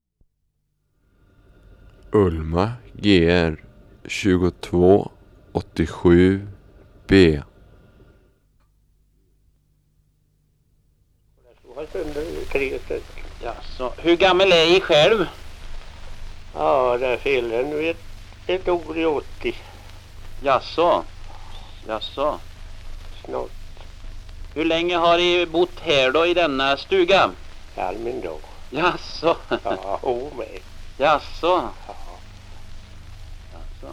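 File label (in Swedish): Amnehärad Västergötland, dialekter; Folkminnen; Svenska sagor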